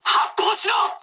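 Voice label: Japanese attack position mood med; War Thunder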